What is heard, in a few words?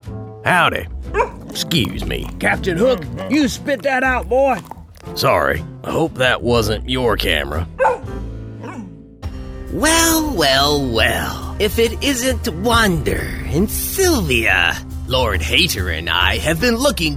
Character Demo